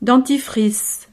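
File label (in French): "dentifrice", French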